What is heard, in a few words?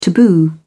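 "taboo", British English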